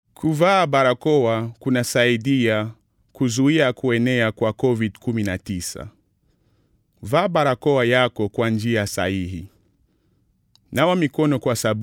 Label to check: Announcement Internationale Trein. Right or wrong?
Wrong